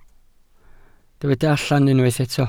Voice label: "allan", Welsh